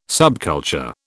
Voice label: "subculture", British